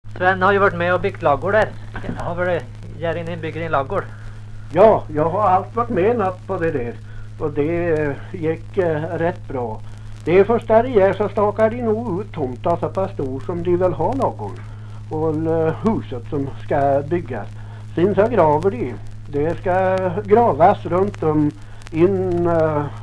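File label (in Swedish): Lerdal Dalsland, dialekter; Folkminnen; Svenska sagor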